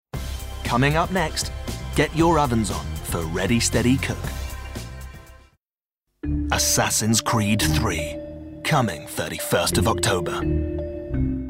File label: Promo